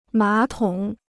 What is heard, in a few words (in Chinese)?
"马桶", Chinese